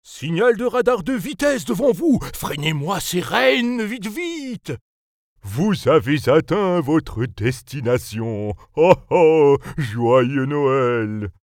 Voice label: Voix comedie Pere Noel Santa GPS

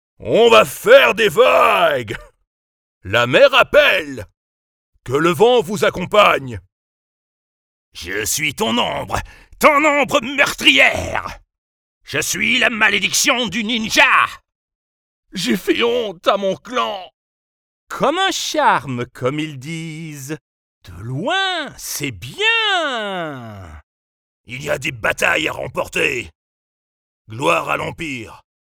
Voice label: Videogames Characters, fr

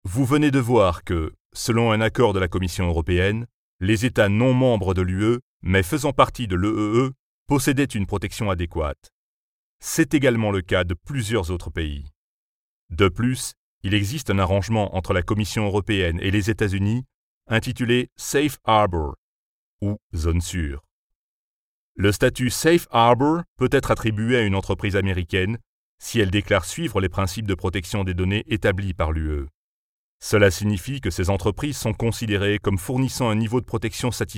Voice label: Voix grave explicative elearning; BT

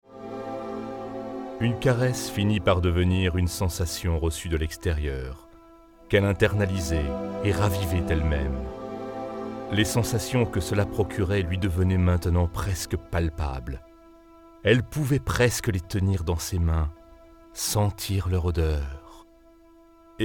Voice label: voix grave sensuel narration

